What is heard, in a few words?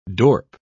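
"dorp", British English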